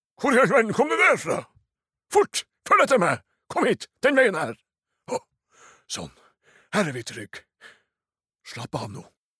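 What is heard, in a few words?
dub, Norwegian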